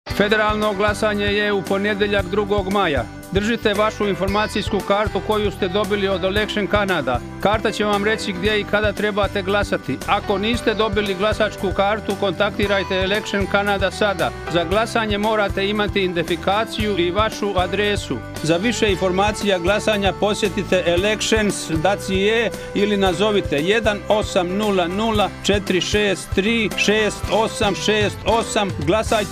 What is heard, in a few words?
croatian